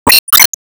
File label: Emo Praise